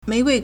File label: "mei2gui1", Chinese